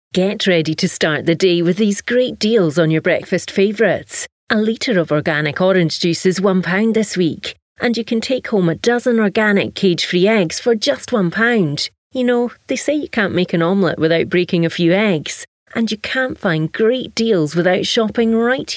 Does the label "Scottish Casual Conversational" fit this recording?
Yes